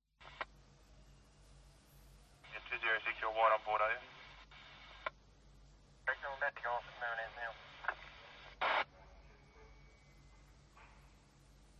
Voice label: Police Radio 2 Way

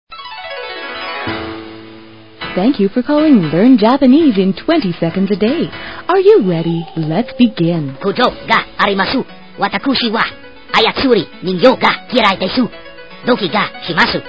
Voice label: Learn Japanese

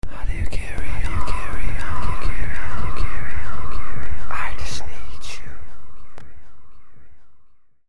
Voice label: Male Whisper Vox

